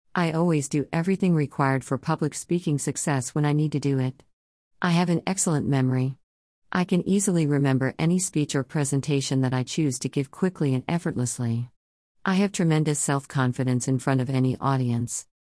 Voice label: Self Talk Female